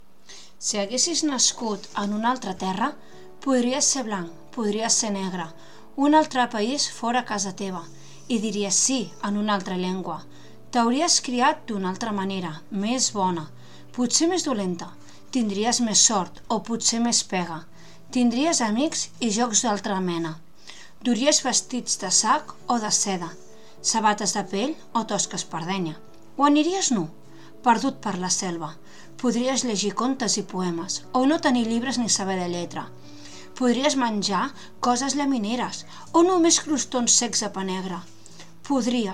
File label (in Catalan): Poema llegit amb música; Joana Raspall